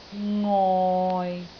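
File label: "ngoi6", Cantonese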